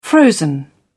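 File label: "frozen", British English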